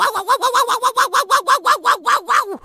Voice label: Surprised

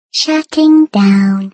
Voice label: "shutting down" turret, ringtones

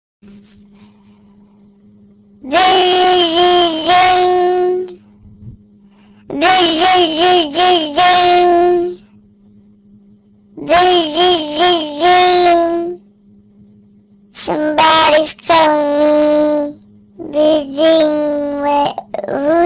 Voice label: drunken chipmunk

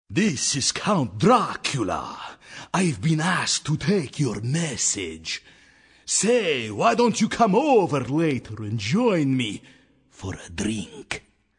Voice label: vampire answerphone; ringtones

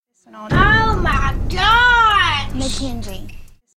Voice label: Is Calling RNB

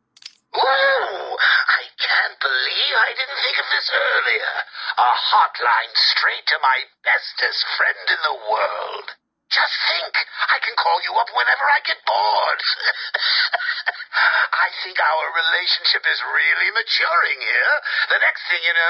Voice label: phone call; ringtones; funny; joker

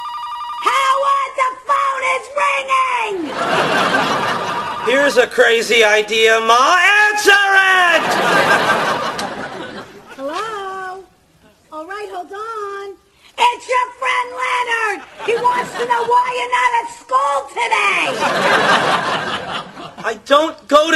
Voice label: Mother Loud

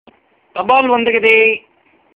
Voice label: post coming tamil

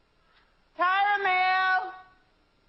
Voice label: yelling

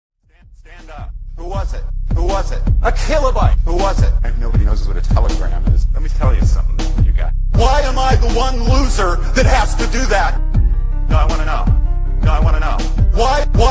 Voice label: autotune cornell professor outbursts at a student; cornell professor outbursts at a student's overly loud yawn

